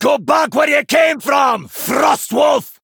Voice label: Male Dwarf In Game Introduction